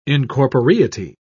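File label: "incorporeity", English, British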